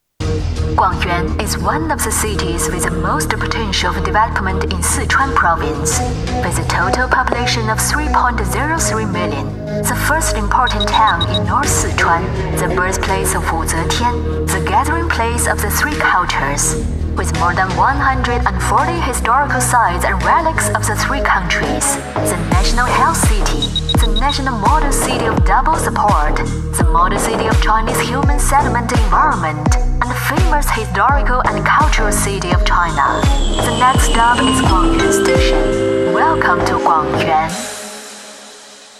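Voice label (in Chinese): 英文城市宣传迅捷有力; 广元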